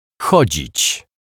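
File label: "chodzic", Polish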